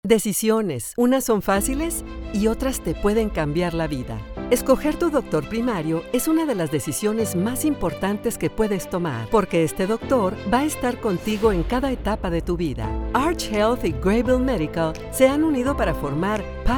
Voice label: SPANISH RADIO